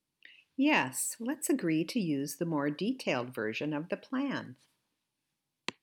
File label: To The Point Sentence, accent american